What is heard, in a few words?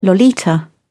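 "lolita", British English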